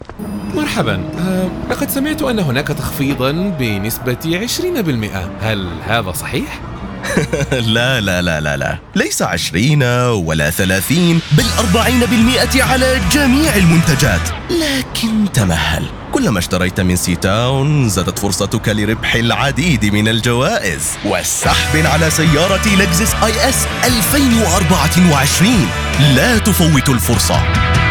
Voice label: Commercial Fresh Enthusiastic Upbeat Standard Arabic; Ctown